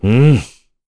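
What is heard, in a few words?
Deny, Korean; heroes; Roman; kings raid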